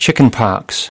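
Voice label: "chickenpox", English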